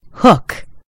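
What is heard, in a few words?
"hook", American English; gcompris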